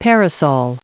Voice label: "parasol", en-us recite